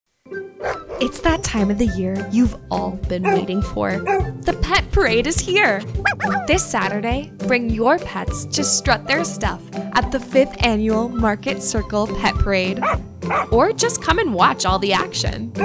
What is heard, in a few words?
Commercial Voiceover